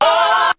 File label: "oh" scratch; ooh ahh